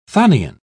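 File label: "chthonian", British English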